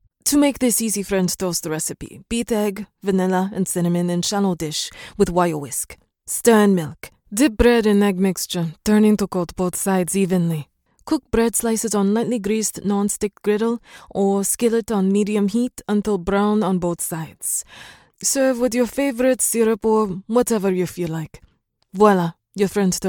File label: east european accent